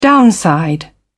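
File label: "downside", British English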